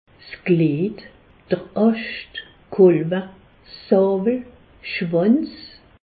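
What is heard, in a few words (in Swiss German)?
"glied", Alsatian; medecine; penis verge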